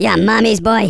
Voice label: "COWARD", Angry Scots; Worms Armageddon